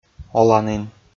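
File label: "оланін", Komi, male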